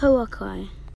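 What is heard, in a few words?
"pouakai", Māori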